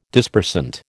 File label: "Dispersant", American